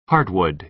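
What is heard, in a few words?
"hardwood", American English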